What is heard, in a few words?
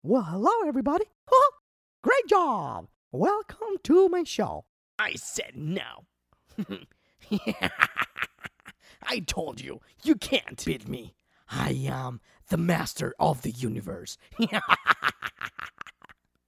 Cartoon Characters